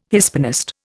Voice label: "Hispanist", Indian